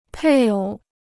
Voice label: "配偶", Chinese

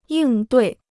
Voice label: "应对", Chinese

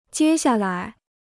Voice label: "接下来", Chinese

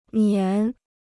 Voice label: "粘", Chinese

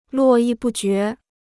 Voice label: "络绎不绝", Chinese

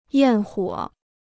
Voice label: "焰火", Chinese

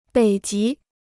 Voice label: "北极", Chinese

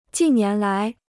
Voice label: "近年来", Chinese

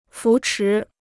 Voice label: "扶持", Chinese

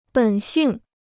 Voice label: "本性", Chinese

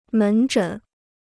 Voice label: "门诊", Chinese

